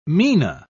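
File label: "mina", British English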